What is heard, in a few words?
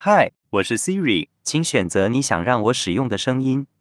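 Chinese, Taiwan; iOS 26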